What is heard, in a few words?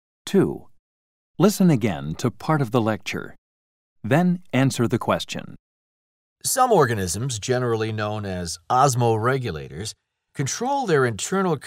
TOEFL Level Check Listening